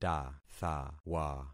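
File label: "tathawa", concatenated syllables; Affixing experiment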